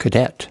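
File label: "cadet", US English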